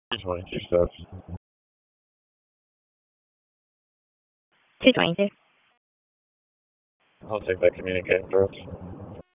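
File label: Police Northeast District Disp, radio; Raleigh; p25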